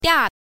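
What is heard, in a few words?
"dia", Mandarin Chinese, fourth tone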